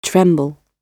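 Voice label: tremble, uk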